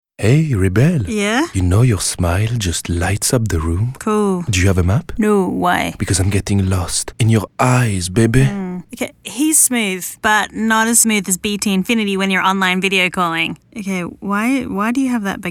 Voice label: English w French accent Commercial

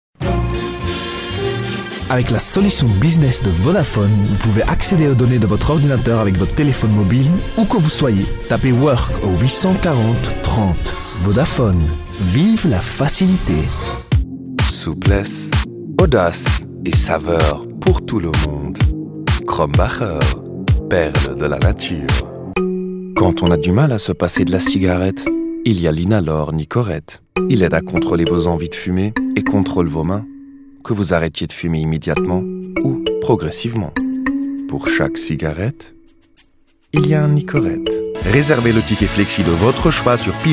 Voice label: French Belgian Accented Commercial Corporate

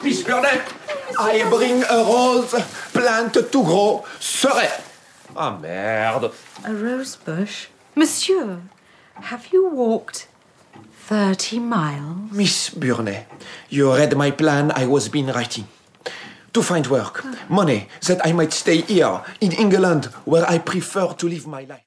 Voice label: English French Accented Radio Drama; When Fanny Met Germaine

